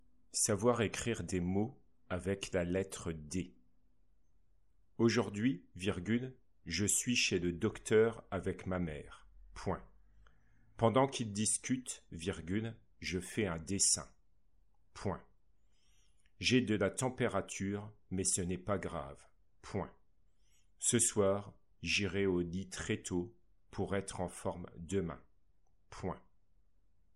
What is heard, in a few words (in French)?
dictee; son d dictee flash